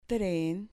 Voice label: "tren", Spanish

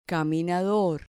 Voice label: "caminador", Spanish

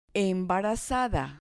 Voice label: "embarazada", Spanish

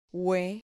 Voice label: "hue", Spanish syllable

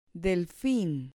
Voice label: "delfin", Spanish

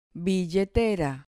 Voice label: "billetera", Spanish

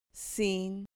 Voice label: "cin", Spanish

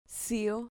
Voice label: "cio", Spanish syllable

